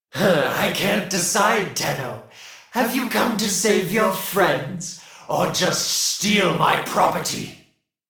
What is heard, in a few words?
Taunt Neutral; Warframe; Alad V Boss; en